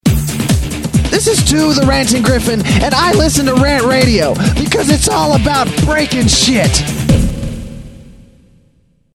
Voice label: Promo; RantRadio; Station Promos-Listener Submitted

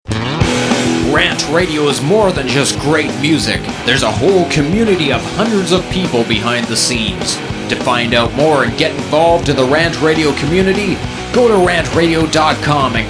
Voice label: Promo punk psa; RantRadio; Station Promos-In House

